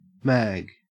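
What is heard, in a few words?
"mag", Australian English; pronunciations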